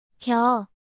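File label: "겨", Korean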